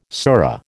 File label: "sura", American